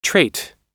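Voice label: "trait", American English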